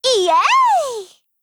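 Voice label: Japanese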